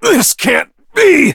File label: hit, en; Cookie Run